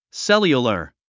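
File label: "cellular", English word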